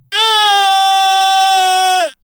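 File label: clean isolated vocal recording; animal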